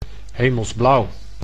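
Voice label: "hemelsblauw", Dutch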